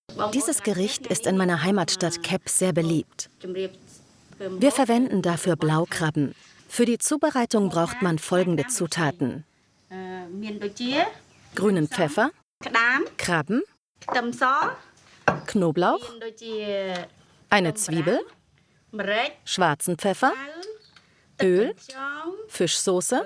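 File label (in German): Overvoice, Köchin, warm freundlich; Traumorte Kambodscha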